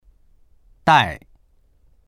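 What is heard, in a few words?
"dai4", Chinese; 带; （物を）携帯する、（人を）連れる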